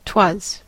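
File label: "'twas", American English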